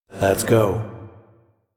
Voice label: Voice Dialog; We Are the Dwarves